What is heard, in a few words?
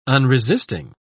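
"unresisting", English, British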